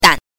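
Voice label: "dan" neutral tone, Mandarin Chinese pinyin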